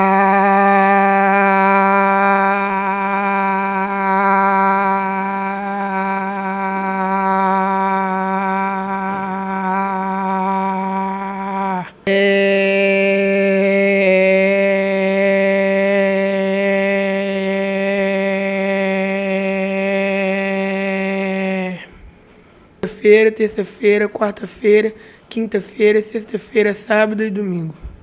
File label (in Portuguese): Equilibrada, Ressonância; fonoaudiologia